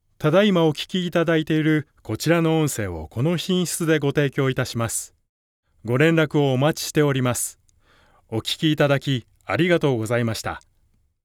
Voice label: japanese commercial; Japonés; Vietnam